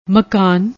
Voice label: "makkan", Punjabi; ਮਕਾਨ

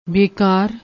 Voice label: "bekaar", Punjabi

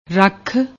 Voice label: "rakkh", Punjabi

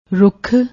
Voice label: "rukh", Punjabi

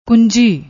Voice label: "kunji", Punjabi

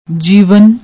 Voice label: "jeevan", Punjabi

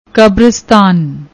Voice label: "kbrstan", Punjabi; ਕਬਰਸਤਾਨ

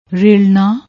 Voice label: "railna", Punjabi; ਰੇਲਣਾ; ریلنا; verb, transitive

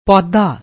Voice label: "poudda", Punjabi

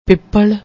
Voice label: "pipal", Punjabi; ਪਿੱਪਲ

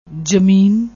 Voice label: "jameen", Punjabi

